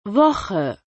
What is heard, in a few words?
"Woche", German